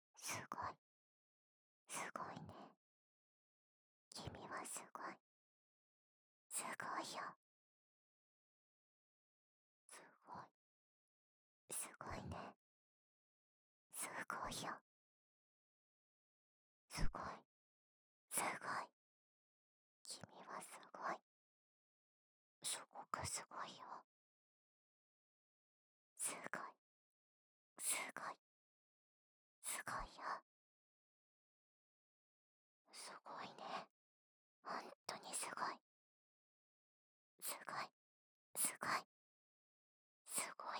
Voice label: 囁き 左耳 君はすごいよ

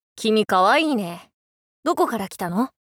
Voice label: 君かわいいね！どこからきたの！? 青年×高音域×お調子者

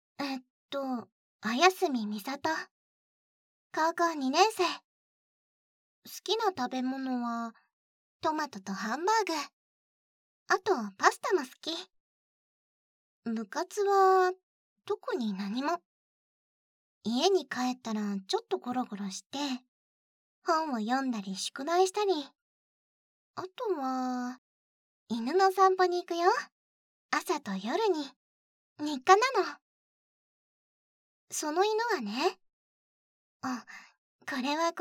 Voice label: キャラ 自己紹介

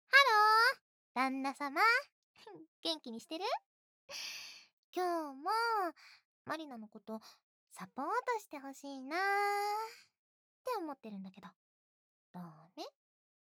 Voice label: 添い寝ケアの練習（効果音なし・右耳用）; ナースまりな; サポートナースまりな

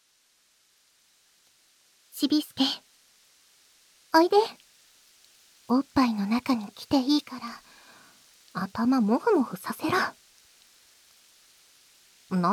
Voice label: ピロートーク

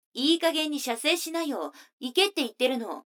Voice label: 女の子 台詞

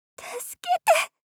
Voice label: 助けて・・・！ 少女 中音域

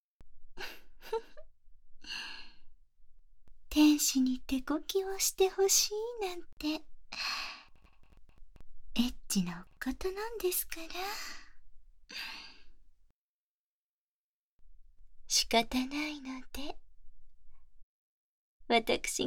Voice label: 手コキ（バイノーラルマイク 効果音あり）

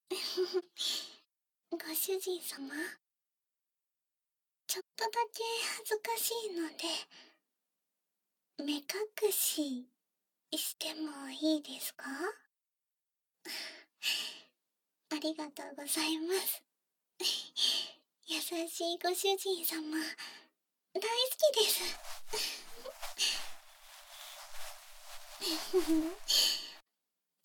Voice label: 耳舐め手コキ→耳舐め本番（バイノーラルマイク収録 効果音あり大きめ）

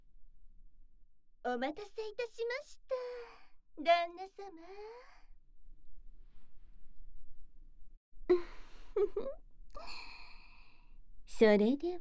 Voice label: あわあわ耳癒し洗浄（簡易モノラル編集 効果音あり）, ASMR

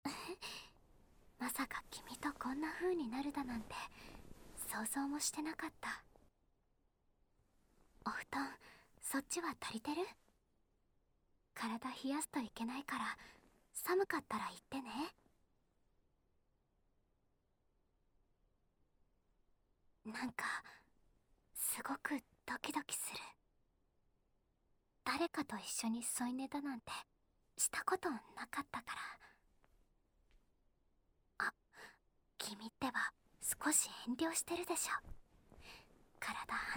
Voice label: 添い寝

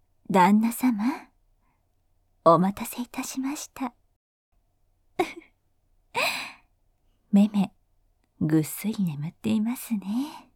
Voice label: サンプル (低音)

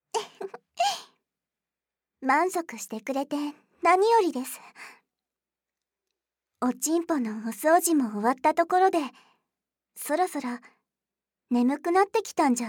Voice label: 安眠誘導

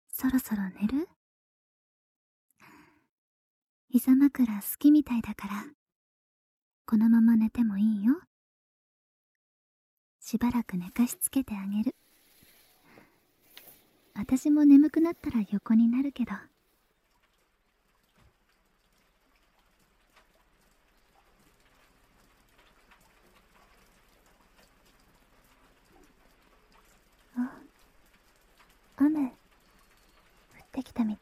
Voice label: 添い寝されて眠るひととき, 雨の音と彼女に癒される安眠音声

